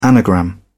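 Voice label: "anagram", British English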